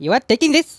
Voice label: dragonpunch, Speech; Worms Armageddon; The Raj